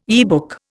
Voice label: "yearbook", Indian

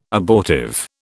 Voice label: "abortive", British

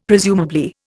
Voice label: "presumably", Indian; Dictionary

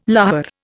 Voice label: "lahar", Indian

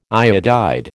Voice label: "iodide", American

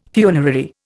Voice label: "funerary", Indian

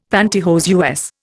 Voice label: "panty hose", Indian; Dictionary

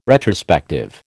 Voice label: "retrospective", American

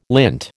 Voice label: "lint", American

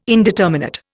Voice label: "indeterminate", Indian

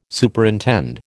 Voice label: "Superintend", American

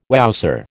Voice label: "wowser", American

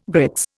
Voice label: "grits", Indian

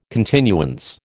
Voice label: "continuance", American

